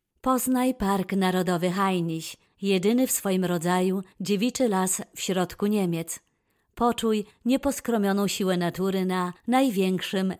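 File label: PO F narration, Polish, female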